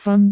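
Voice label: "fan1", Cantonese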